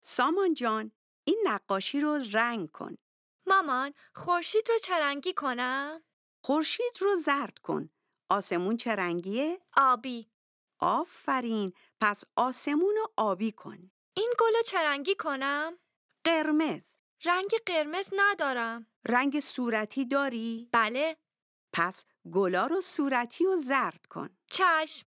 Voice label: Dialogue lesson Farsi